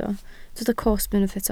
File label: "cosb", Welsh